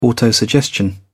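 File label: "autosuggestion", English, British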